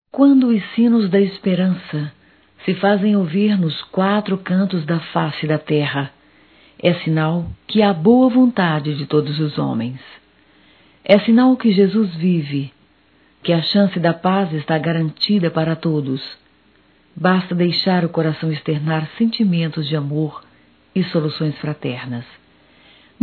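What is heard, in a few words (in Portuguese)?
Natal evangélico plural, feminino; Telemensagens 2017; Natal & Ano Novo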